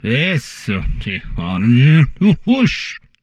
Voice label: humanoid portrait male; Stellaris; humanoids

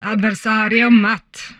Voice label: humanoid female; Stellaris; humanoids

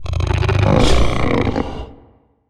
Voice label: portrait synthetic; Stellaris; machineage

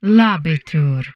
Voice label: humanoid portrait female; Stellaris; humanoids

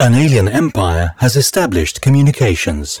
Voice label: advisor notification alien empire communication; Stellaris; notifications

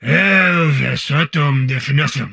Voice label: humanoid portrait male; Stellaris; humanoids

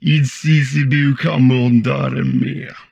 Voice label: humanoid portrait male; Stellaris; humanoids

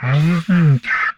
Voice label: humanoid portrait male; Stellaris; humanoids

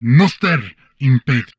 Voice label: humanoid male; Stellaris; humanoids

